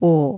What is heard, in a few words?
"오", korean; vowel